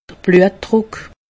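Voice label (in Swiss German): "bluetruck", Alsatian; medecine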